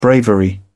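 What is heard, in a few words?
"bravery", British English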